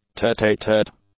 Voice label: "tete-a-tete", English; adjectives